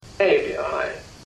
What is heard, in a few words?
correct english